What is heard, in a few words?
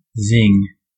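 "zing", US English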